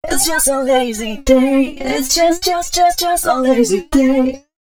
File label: female, Dance Ultra Voice hooklines